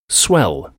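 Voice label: "swell", English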